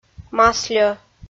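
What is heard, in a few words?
"масльӧ", Komi, female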